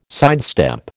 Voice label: "sidestep", American

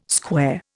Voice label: "square", Indian

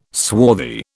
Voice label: "swarthy", British

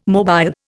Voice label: "mobile", Indian

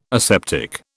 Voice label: "aseptic", British

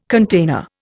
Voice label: "container", Indian

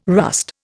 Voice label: "rust", Indian

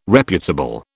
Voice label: "reputable", British

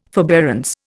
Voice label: "forbearance", Indian

